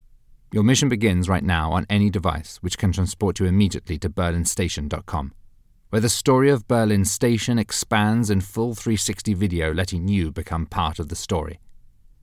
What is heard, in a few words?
Informational; Berlin Station